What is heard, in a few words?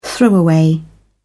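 "throwaway", British English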